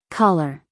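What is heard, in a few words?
"collar", American English, female; everyone-can-use-english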